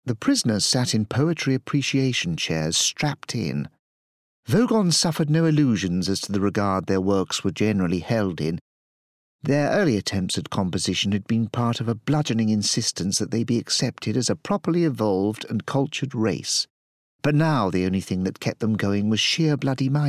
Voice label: Bookread; Hitch Hikers Guide